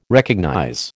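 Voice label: "recognize", American English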